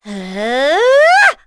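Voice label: Vox Casting, English; Chrisha; kings raid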